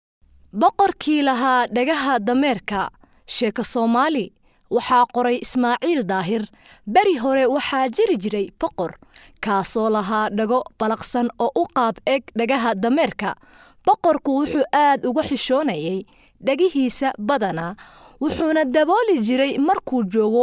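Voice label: Somali, General; GoLocalise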